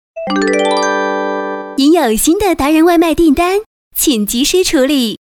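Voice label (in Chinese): 广播提示 外卖订单, 女, 普通话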